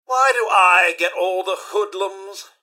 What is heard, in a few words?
steal, robot; mrhandy